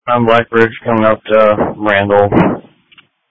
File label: Police Dispatch